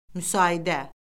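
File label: "müsaidə", Azerbaijani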